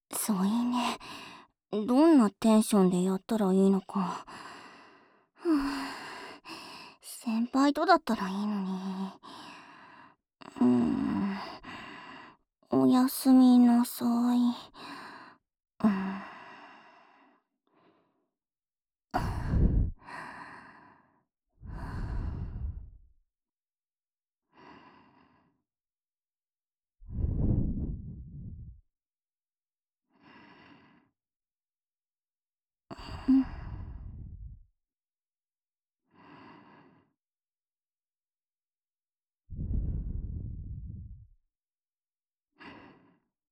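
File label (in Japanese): 添い寝（左）, ASMR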